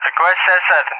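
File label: "Request CSAR", English, Russia; DCS; Allied Flight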